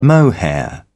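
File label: "mohair", British English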